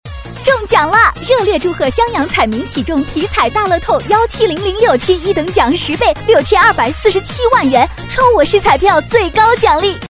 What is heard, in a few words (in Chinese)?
广告 女 激情; 彩票; 中奖啦彩票女50